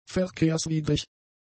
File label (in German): "verkehrswidrig", German; adjectives